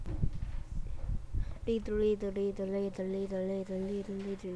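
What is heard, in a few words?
"lead", spoken word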